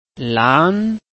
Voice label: "lan2", Chinese

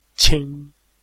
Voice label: "qing1", Chinese

